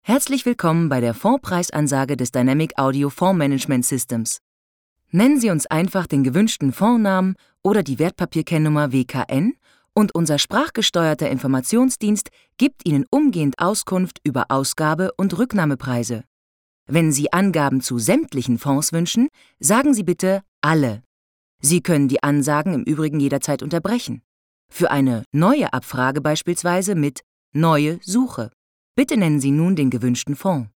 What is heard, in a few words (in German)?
telefon, deutsch frauen